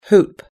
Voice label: "hoop", us-english